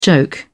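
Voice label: "joke", British English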